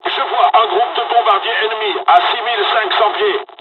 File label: dialog, dialogs french; midway; War Thunder